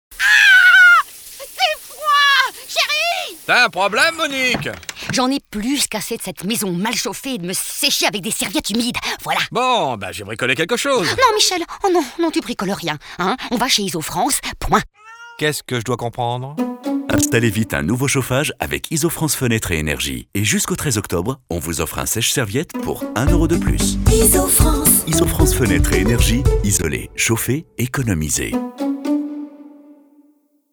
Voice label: PUB pure comédie; ISOFRANCE

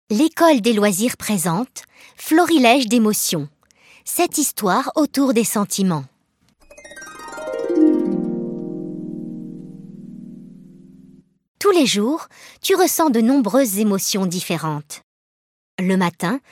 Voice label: Narration Les Emotions; Ecole Des Loisirs

